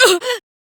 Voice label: Pain, Female; Warframe; Railjack; Cephalon Suda